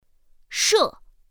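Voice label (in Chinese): "shè", Mandarin Chinese; pinyin2; pinyinji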